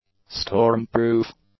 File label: "storm-proof", English; adjectives